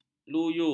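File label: "luyu", Malay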